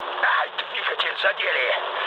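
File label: Russian damaged engine mood low, speech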